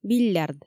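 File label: "биллярд" Komi, female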